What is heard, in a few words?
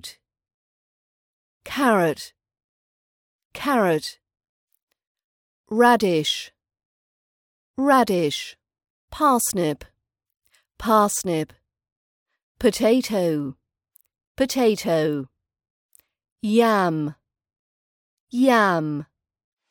british accent training root veg vocab